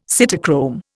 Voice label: "cytochrome", Indian